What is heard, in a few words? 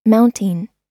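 "mounting", American English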